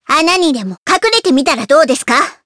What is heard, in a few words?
Vox Skill jp; Hanus; kingsraid